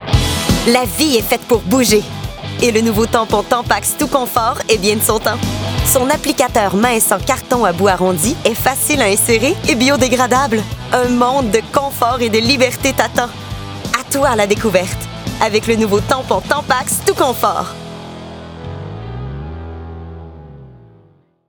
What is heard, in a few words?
voice acting; Publicity